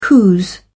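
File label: "whose", British English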